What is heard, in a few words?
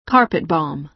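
"carpet-bomb", American English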